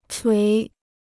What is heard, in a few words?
"捶", Chinese